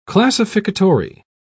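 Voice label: "classificatory", English, uk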